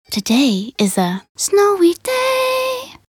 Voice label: weather snowy, en